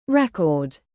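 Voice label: "produce", verb, British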